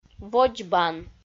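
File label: "водзбан", Komi, female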